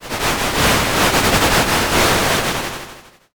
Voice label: whisper